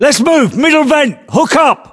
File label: voiceovers, US; english